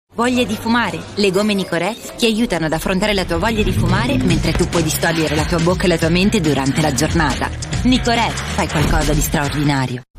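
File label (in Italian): comercial Italia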